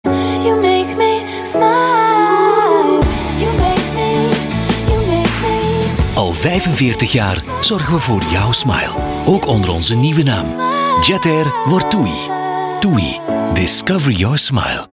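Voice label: Belgian warm, flemish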